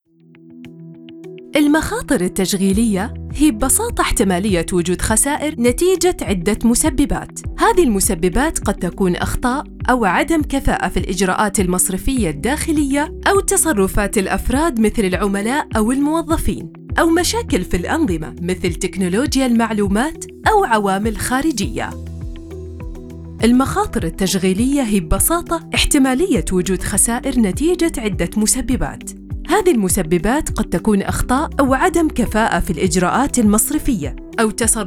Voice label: arabic e-learning

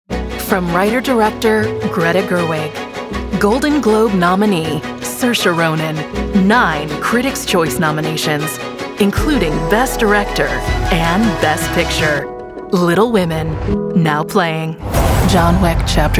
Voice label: english american movie trailers

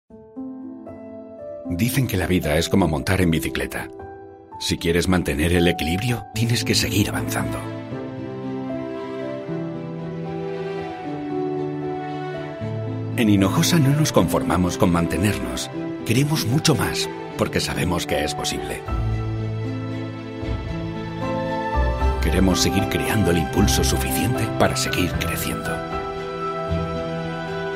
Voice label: spanish explainer videos

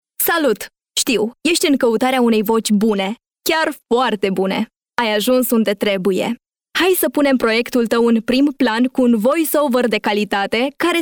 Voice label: romanian

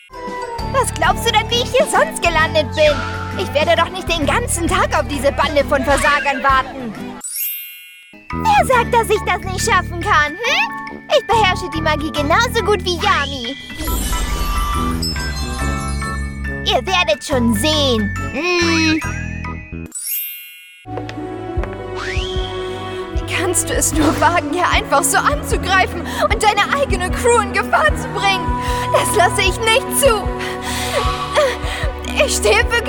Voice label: german tv shows

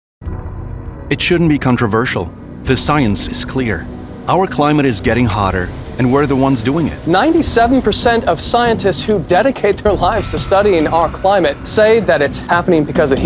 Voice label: english international political ads; Global English voice talent

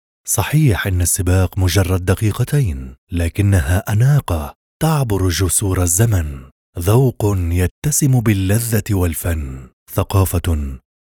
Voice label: arabic promos

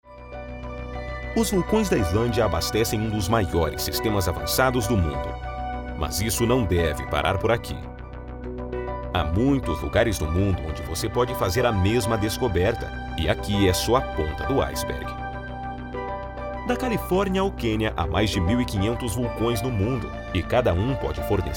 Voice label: portuguese brazilian documentaries

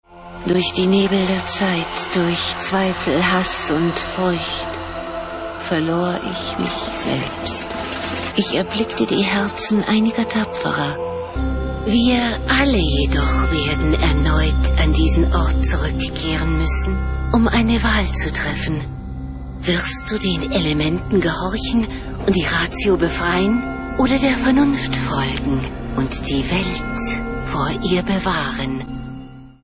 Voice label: german video games

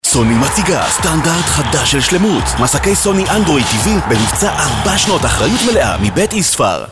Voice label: hebrew commercial

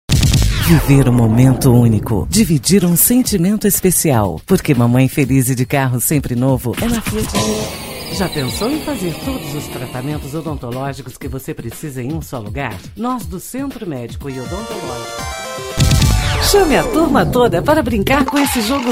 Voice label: portuguese others

